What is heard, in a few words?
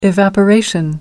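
"evaporation", British English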